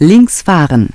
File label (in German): "links fahren", Navigation; DISTEFORA Navigation; Mobile Navigator Notebook